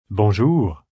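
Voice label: Hello French, Greetings, male, French; Greetings And Goodbye; VoiceBox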